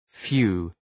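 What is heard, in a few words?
"phew", English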